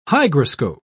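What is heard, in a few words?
"hygroscope", British English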